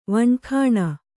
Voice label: "ವಣಖಾಣ", Kannada

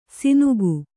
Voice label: "ಸಿನುಗು", Kannada

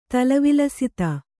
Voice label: "ತಲವಿಲಸಿತ", Kannada

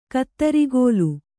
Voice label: "ಕುದುರೆಗಾಲ್", Kannada

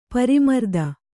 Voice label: "ಪರಿಮರ್ದನ", Kannada

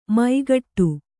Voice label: "ಮೈಗಟ್ಟು", Kannada

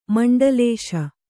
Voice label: "ಮಂಡಲಿಸು", Kannada

